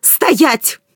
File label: threat distant, woman; Stalker Anomaly